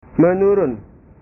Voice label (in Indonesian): "menurun", bahasa